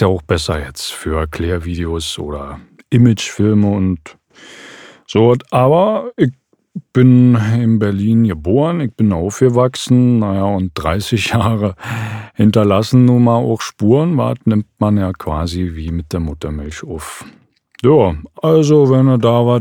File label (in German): Hoerprobe Berliner Dialekt